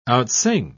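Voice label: "outsing", British English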